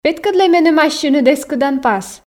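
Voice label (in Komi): петкӧдлӧй меным ассьыныд эскӧданпас, Komi, female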